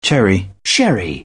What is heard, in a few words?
"cherry", French